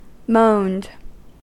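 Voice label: "moaned", US English; pronunciations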